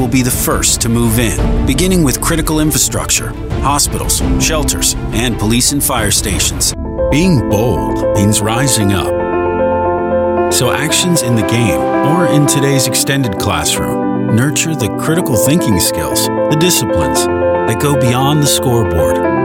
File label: Commercial